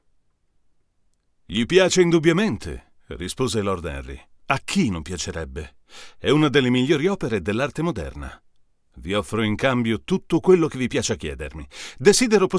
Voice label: sEX1T Mullard per confronto vs U87AI

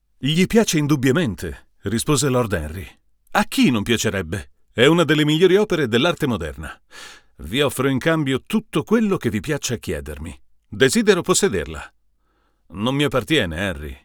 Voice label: sEX1 per confronto con U87AI

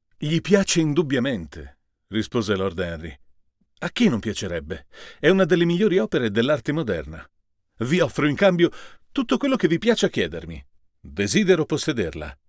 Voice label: U87AI per confronto con TLM102

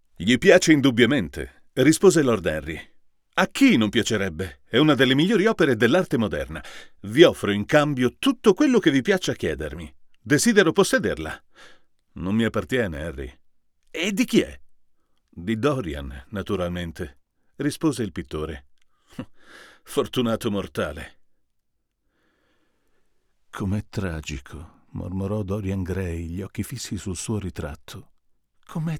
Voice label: U87AI per confronto con AKG P420

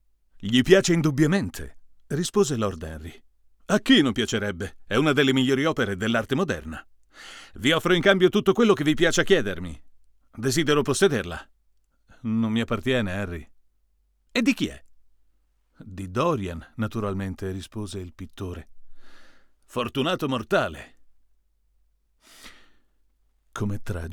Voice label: CAD E100 VS CAD E100s per test

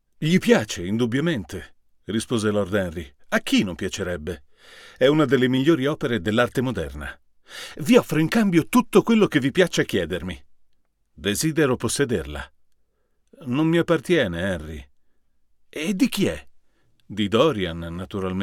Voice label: U87AI per confronto con Behringer B-1

